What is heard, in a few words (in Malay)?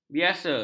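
"biasa", Malay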